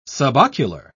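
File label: "subocular", British English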